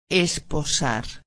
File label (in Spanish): "esposar", espanhol; palavra do dia